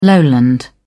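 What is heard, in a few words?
"lowland", British English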